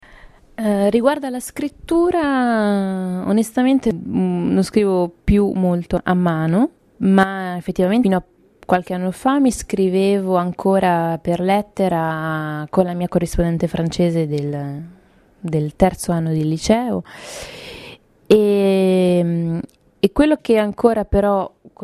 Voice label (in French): étudiante de 24 ans, parle de son rapport à; scrittura